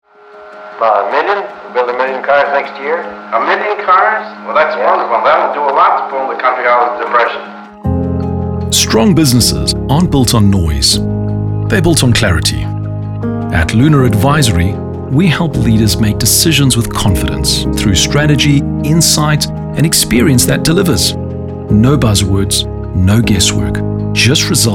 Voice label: Corporate, voiceover